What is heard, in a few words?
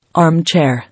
"Armchair", English